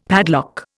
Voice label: "padlock", Indian; Dictionary